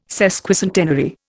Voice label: "sesquicentenary", Indian

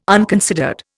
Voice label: "unconsidered", Indian

